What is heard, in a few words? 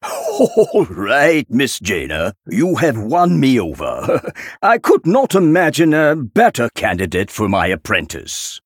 Male Human Victory; Antonidas; Jaina